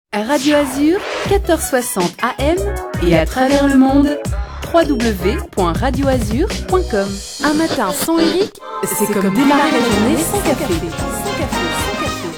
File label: Imaging French Voice Over